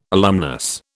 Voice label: "alumnus", British